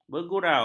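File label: "bergurau", Malay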